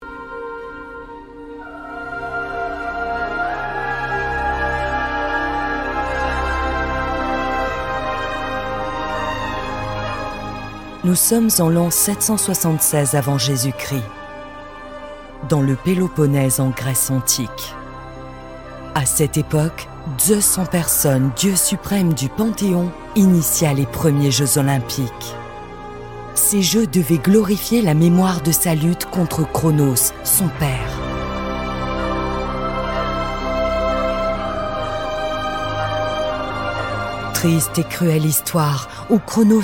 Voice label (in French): Narration; ZEUS ET LA FLAMME